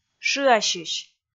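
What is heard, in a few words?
"шыасьысь", Komi, female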